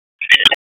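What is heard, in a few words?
Sheriff's Office Dispatch; wake; p25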